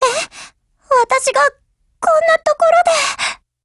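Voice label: BREAK, Japanese; GF Alarm; M1911Mod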